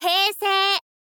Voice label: "heisei", info girl voice

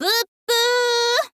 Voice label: "bubu", girl

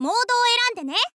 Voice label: "mode wo erande ne", info girl voice

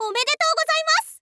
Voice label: "omedetou gozaimasu", info girl voice

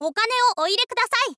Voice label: girl; voice; info girl

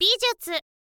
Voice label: "bizyutsu", girl

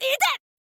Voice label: thief boy damage

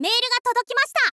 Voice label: "mail ga todokimashita", info girl voice

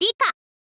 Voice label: "rika", info girl voice

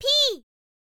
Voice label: "p", girl; voice; info girl

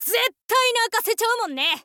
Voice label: thief boy special

